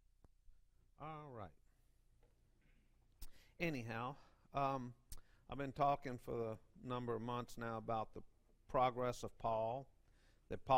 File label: Preaching